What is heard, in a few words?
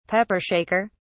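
"pepper shaker", British English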